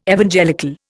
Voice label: "Evangelical", Indian